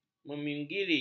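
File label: "meminggiri", Malay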